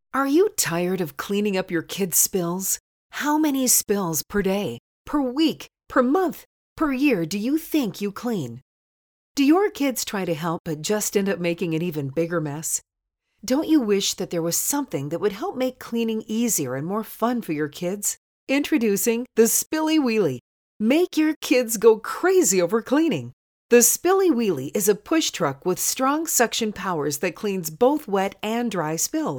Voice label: Commercials